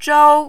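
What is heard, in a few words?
"chou" tone 1, Mandarin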